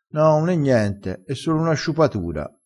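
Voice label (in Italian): "sciupa", dialettale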